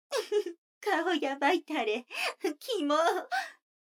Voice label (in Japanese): 女の子 台詞